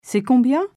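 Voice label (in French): "c est combien", French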